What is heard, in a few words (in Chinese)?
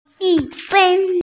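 "mi4feng1", Mandarin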